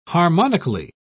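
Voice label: "harmonically", British English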